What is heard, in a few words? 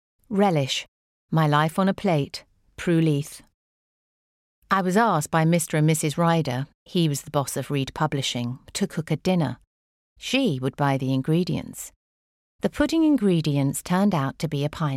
story